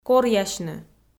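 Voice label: "коръясьны", Komi, female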